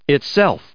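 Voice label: "itself", US English pronunciation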